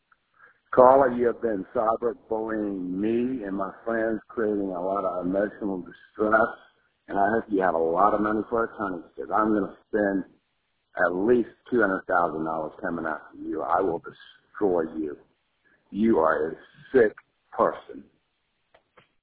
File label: voicemail